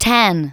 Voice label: VOCALS SPOKEN; Techno Trance Essentials